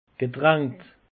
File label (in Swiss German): "getrankt", alsace; peche